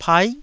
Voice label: "pai9", taiwanese